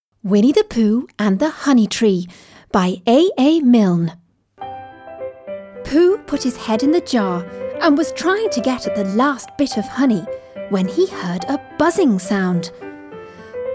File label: narration children